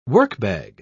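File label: "workbag", British English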